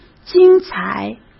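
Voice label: "精彩", Mandarin Chinese; hsk; chinese-flashcards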